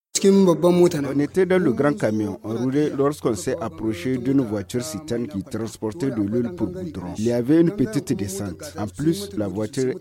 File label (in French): FR VOX POP AVIS VICTIMES ACCIDENT